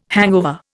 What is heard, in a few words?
"hangover", Indian